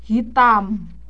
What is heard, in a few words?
"hitam", indonesian